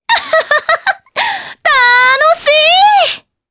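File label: Vox Victory, Japanese; Epis; kingsraid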